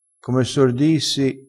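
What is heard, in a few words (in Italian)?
"sor", vocabolario dialettale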